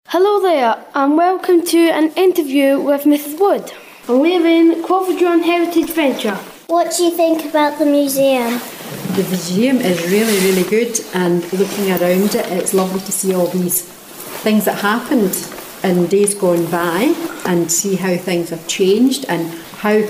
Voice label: Interview